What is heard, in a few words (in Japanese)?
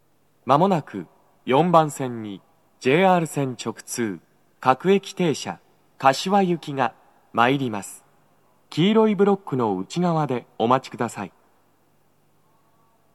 接近放送 男声, 各駅停車 柏行; 日比谷駅; 4番線 綾瀬・北綾瀬・取手方面